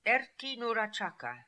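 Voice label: "Erti Noora Chaka", pronunciations; Fourth Way; Beelzebub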